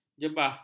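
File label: "jebah", Malay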